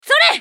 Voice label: ソルジャー女 攻撃短; リボルドウェソルジャー

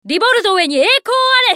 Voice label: ソルジャー女 移動; リボルドウェソルジャー

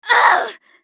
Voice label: ソルジャー女 ダウン; リボルドウェソルジャー

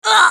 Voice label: ウォーロック女･ダウン

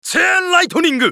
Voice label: ウォーロック男 チェインライトニング

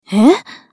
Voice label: スカウト女 選択

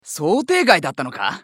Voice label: スカウト男 リサシテーション

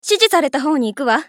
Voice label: 女 移動; マスケッティア

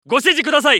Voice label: ソルジャー男 選択; リボルドウェソルジャー

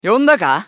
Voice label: 男; スカウト

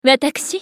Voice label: ウォーロック女 選択

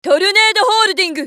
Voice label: ウォーロック女 トルネードホールディング

